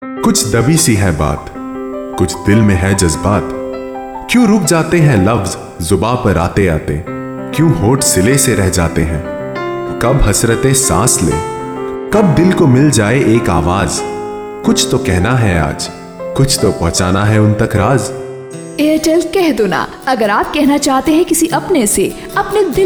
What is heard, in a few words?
Ad HIndi